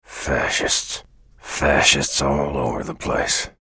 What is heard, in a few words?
chatter neutral; bj blazkowicz